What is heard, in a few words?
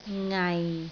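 "ngai6", Cantonese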